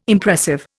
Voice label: "Impressive", Indian